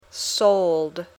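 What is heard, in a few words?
"sold", English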